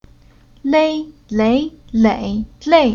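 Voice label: "Lei", Chinese syllable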